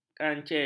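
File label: "kancil", Malay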